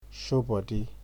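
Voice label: "show body", Nigerian Pidgin, male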